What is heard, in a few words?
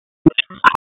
Police Spec Ops Tac 2, P25; Wake